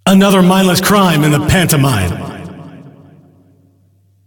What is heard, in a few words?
Announcer; Ringmaster